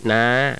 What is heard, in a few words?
high rising, thai tones